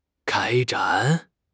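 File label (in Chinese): "开展", Chinese; HSK; HSK6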